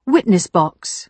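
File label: "witness box", British English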